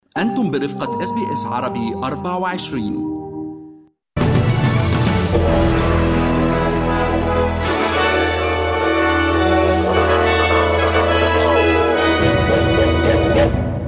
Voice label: arabic, newsflash; evening news bulletin